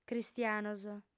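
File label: "cristianos", female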